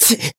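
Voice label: Voice damaged, Japanese; jade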